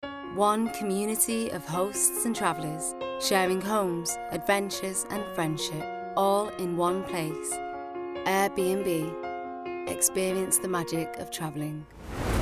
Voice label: Commercial reel